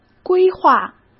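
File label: "规划", Mandarin Chinese; chinese-flashcards; hsk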